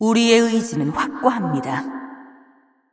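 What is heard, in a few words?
Korean, assist; ko KR; VO; Karma; bloom minigame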